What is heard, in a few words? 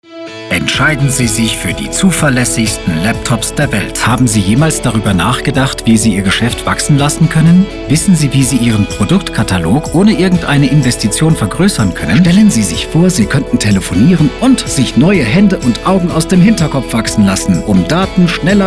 Documentary